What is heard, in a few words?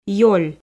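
"ёль", Komi, female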